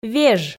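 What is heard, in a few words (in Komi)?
"веж", Komi, female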